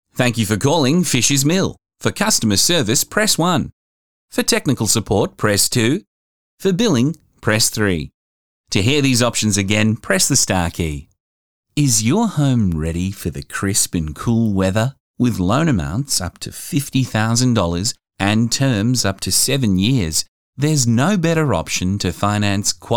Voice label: Aussie